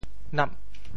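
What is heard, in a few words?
"nap8", Teochew; 喏; 潮州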